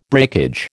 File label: "breakage", American